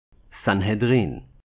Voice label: "Sanhedrin", Hebrew

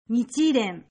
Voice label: "Nichiren", Japanese

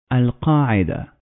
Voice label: "al-Qaeda", Arabic

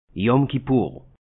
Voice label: "Yom Kippur", Hebrew

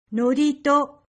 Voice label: "Norito", Japanese

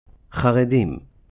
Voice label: "Haredim", Hebrew

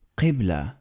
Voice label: "Qiblah", Arabic

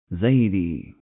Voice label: "Zaidi", Arabic

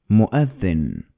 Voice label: Arabic Muezzin

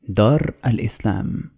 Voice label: "Dar al-Islam", Arabic

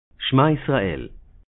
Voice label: "Shema Yisrael", Hebrew

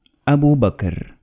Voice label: "Abu Bakr", Arabic

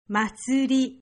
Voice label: "Matsuri", Japanese

